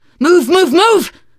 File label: emphatic go, teengirl; survivor voice